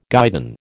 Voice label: "guidon", American